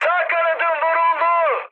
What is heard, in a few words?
right wing, mood high, speech tur; War Thunder